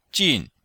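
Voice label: "jin4", Chinese; Cours de Chinois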